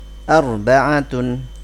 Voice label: "arbaAtun", Arabic; angka arabic 1-10